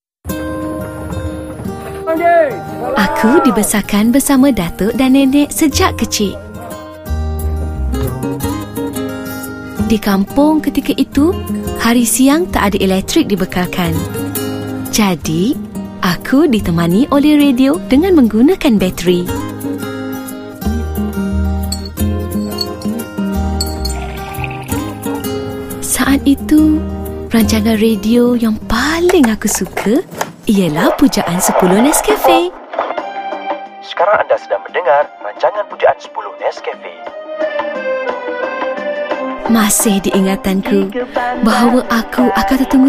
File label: narrator; pujaan nescafe